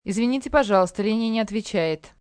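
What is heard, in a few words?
No One Answer Russian, Telephone operator, Russian, female; Telephone Voice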